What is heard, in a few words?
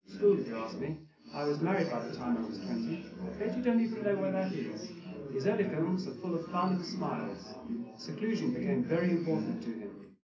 male; SiSEC11; underdetermined